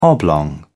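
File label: "oblong", British English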